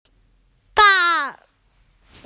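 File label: "fa4", Mandarin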